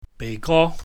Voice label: "beihgo", cantonese

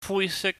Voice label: "fuisik", Cantonese

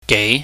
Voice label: "gei", chinese

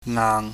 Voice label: "ngaang", Chinese

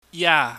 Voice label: "ya", Chinese

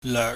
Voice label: "leuk", chinese

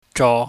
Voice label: "jo", chinese

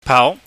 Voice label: "pau", pingyam

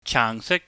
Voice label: "chaangsik", Cantonese

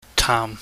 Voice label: "taam", Chinese

